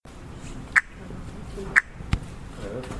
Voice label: 2 voices

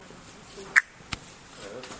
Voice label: 2 voices

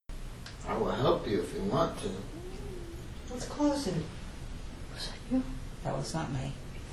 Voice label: child's voice

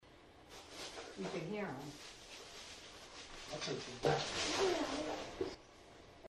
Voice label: childs voice; just the portion with the child's voice is amplified to make it easier to hear.; The following clips were obtained from Burn Brae Mansion.

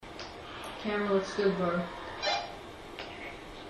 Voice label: long whisper

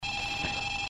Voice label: sounds like no whispered

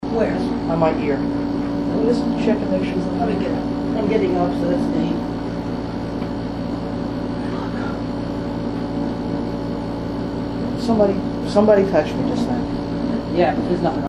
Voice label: mans voice

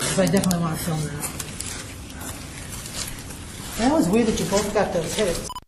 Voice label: whisper now get ready

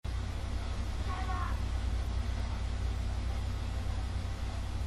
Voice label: man yells stay back

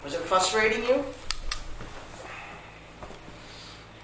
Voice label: whisper

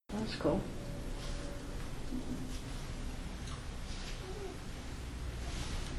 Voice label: childs voice

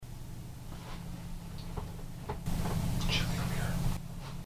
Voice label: Whispering Library

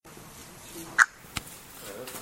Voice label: 2 voices slow

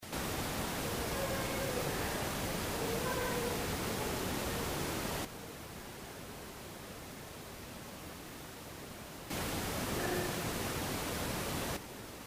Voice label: child voice

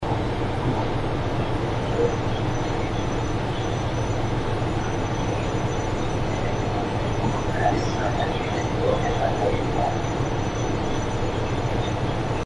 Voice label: voices modified; enhanced; This recorder was left unattended on the back staircase.

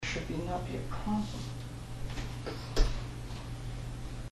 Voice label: mimick voice

